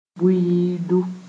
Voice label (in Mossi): "buiidu", moore